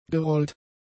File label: "gerollt", German; adjectives